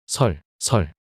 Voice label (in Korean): 설설 낱소리 남자